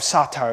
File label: british bloke, Voice drops